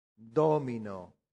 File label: "domino", latin; santillana